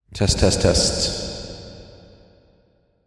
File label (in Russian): proverka o otstrojka mikrofona golos